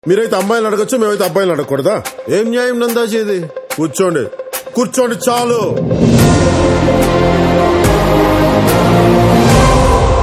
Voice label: Trailer Dialogue Ringtone; Vakeel Saab Trailer; Pawan Kalyan